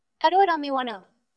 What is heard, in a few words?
VoiceActing es